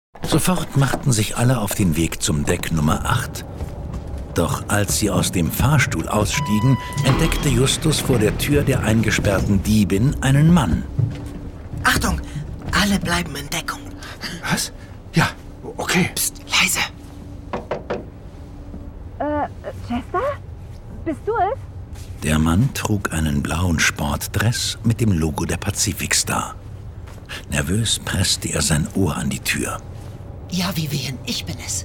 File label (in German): HOERSPIEL Kids darstellend spielerisch; DreiFragezeichenKids